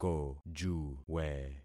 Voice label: "kojuwe", concat syllables; Affixing experiment

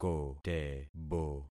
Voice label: "kotebo", concat syllables; Affixing experiment

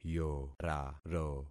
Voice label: "yoraro", concat syllables; Affixing experiment

